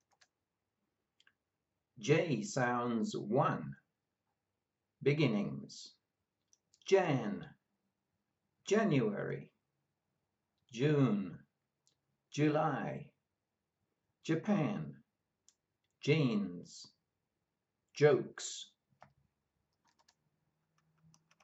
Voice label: J sounds, pronunciation of australian english